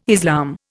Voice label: "Islam", Indian